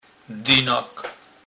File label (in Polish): "dynak", kurpie; slownik